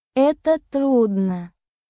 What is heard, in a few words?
russian phrases